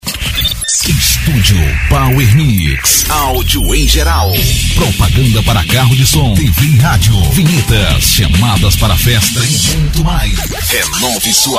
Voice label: spot; studiopowermix